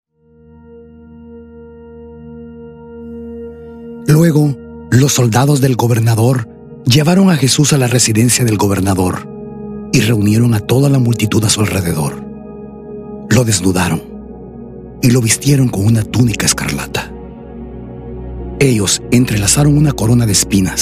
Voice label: spanish latin american narration; Estados Unidos da América

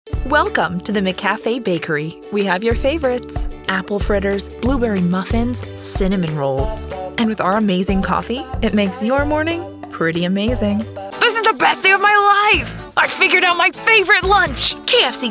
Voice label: english american commercial; Inglés (Estados Unidos); actriz de doblaje

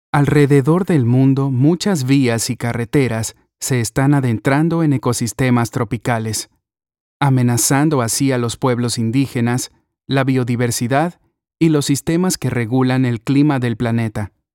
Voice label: spanish latin american documentaries

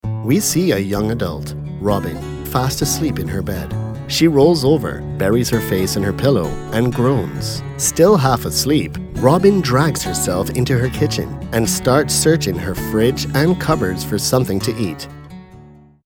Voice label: english international narration

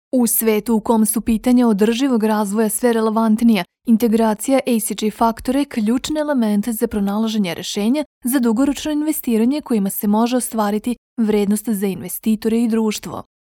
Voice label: serbian animation; Professional Serbian native voice-over actor from Belgrade

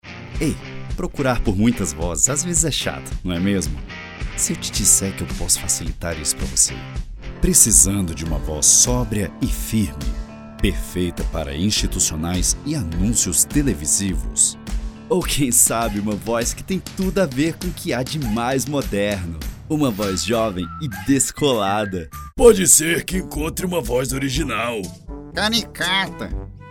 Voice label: portuguese brazilian promos

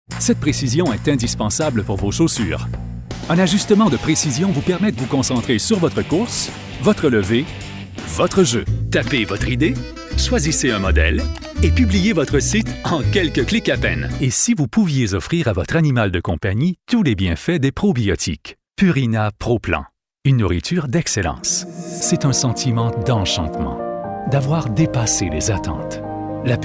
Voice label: french canadian commercial

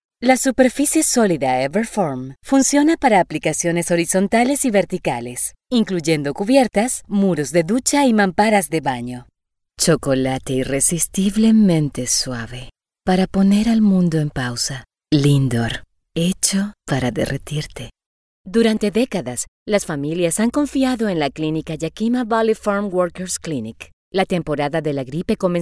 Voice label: spanish latin american commercial

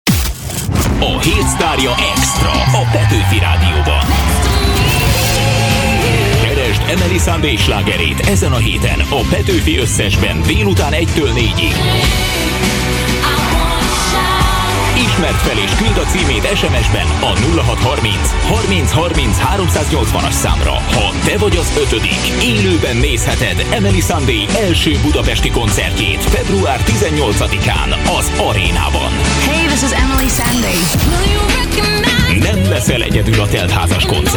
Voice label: hungarian promos

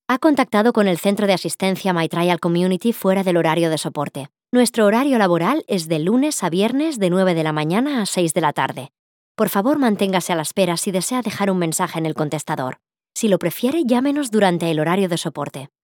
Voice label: spanish ivr

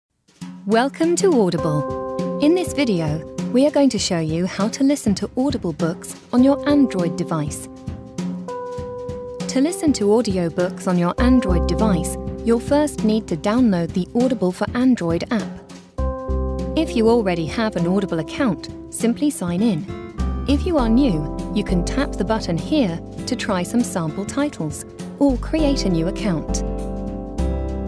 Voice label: english british e-learning

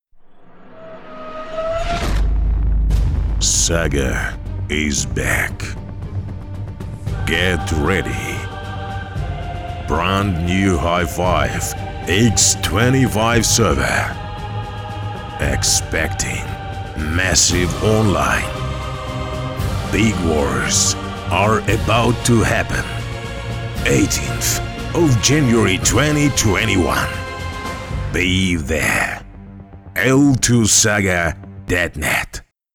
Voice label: Russian video games

